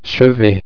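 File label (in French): "chevet", French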